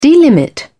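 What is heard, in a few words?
"delimit", British English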